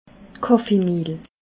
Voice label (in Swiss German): "kaffeemehl", alsace